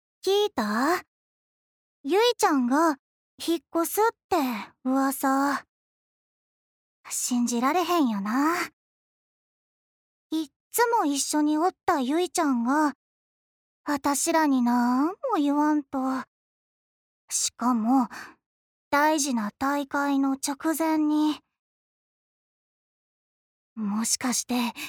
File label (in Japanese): アニメ